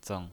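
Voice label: "zeng" Mandarin Chinese pinyin voice, neutral tone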